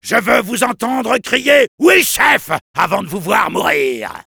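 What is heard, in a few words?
Soldier taunts, french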